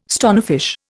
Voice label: "stonefish", Indian

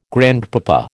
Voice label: "grandpapa", American

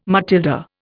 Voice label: "Matilda", Indian

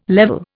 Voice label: "level", Indian

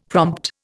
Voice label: "prompt", Indian; Dictionary

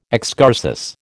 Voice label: "excursus", American

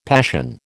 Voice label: "passion", American

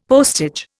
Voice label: "postage", Indian; Dictionary

